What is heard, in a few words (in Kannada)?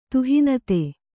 "ತುಹಿನತೆ", Kannada